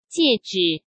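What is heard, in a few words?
"戒指" Mandarin Chinese; hsk; chinese-flashcards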